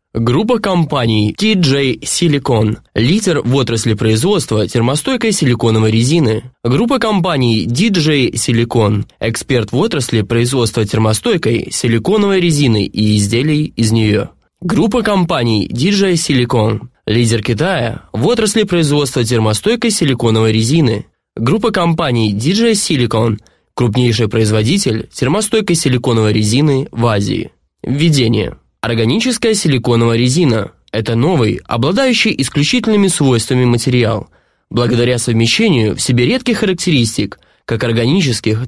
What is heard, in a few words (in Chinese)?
俄语男, Dark